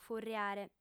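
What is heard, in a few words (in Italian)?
"furriare", lingua sarda, female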